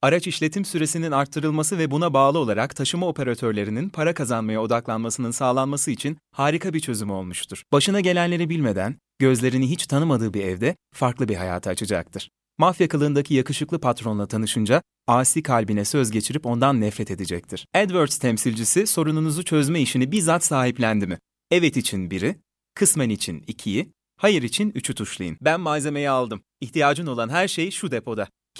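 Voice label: Turkish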